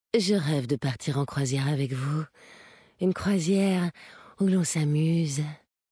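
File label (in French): French Femme Flirt; Croisiere; Kultirassien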